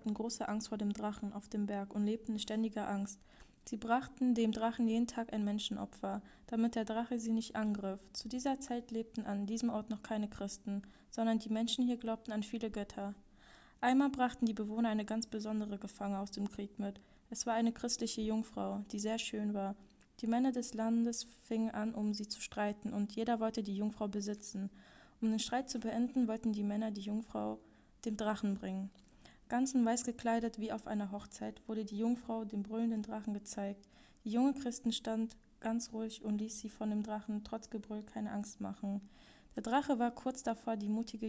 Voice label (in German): Drachenfels Deutsch komplett